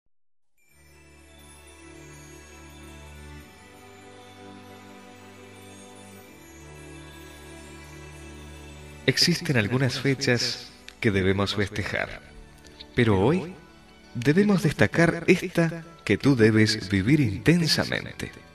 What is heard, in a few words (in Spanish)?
Cumpleaños gen M; Variadas em Espanhol; Masc